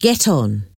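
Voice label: "get on", English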